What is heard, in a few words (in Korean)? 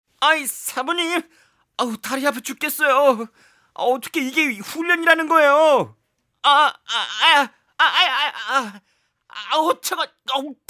게으른 손오공 성우